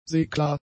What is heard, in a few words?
"seeklar", German; adjectives